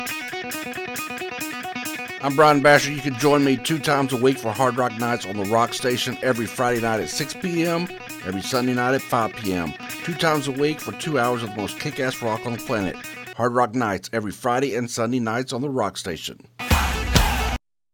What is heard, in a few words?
Trailer; Hard Rock Nights Fri-Sun